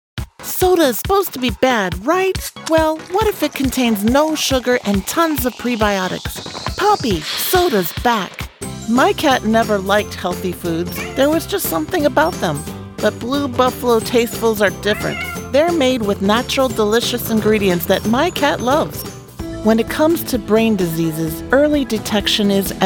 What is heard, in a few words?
Commercial